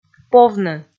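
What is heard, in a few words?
"повны", Komi, female; kpv audio dict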